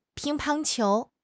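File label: "ping1 pang1 qiu2", Chinese; HSK4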